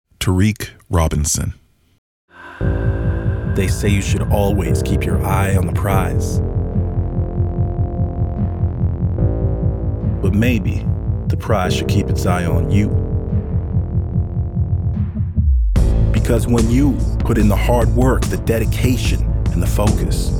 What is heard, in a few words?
Commercial